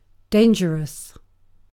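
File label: "dangerous", British English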